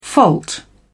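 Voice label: "fault", British English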